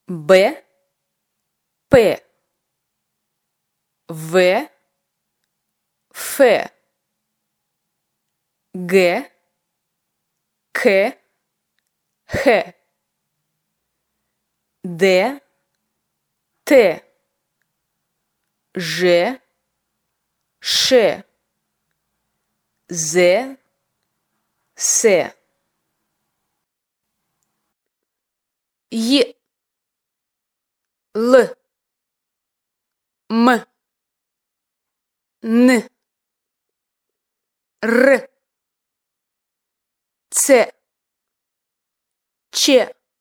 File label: russian consonants; grammar